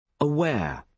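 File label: "aware", British English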